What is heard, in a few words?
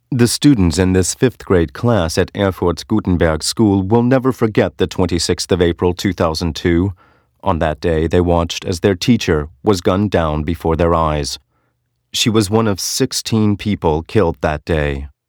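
English US, commentary, neutral; Kommentar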